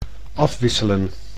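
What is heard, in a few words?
"afwisselen", Dutch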